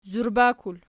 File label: "zurbakul", Archi